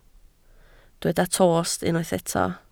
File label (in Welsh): "tost", Welsh